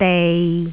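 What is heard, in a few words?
"dei6", Cantonese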